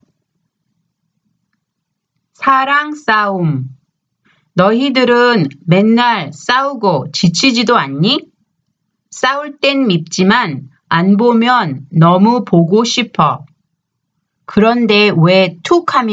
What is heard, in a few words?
conversation; K-Pop Volume 3